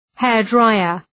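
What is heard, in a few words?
"hairdryer", English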